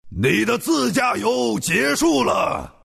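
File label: rival, Chinese; Dota 2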